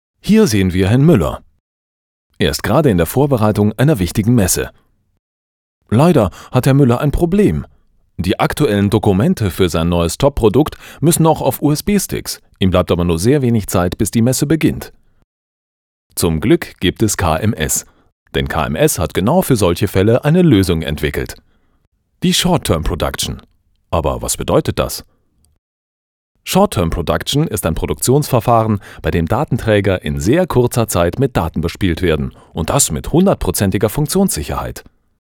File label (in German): erklaerfilm werbend